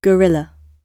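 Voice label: "gorilla", British English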